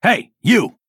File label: Male Night Elf Attack